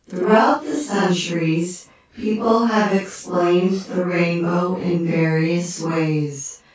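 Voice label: female speech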